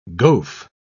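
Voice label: "goaf", British English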